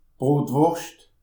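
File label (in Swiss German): "brotwurscht", Alsatian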